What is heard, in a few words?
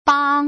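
"bang", Mandarin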